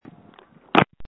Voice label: call recording